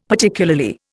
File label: "Particularly", Indian; Dictionary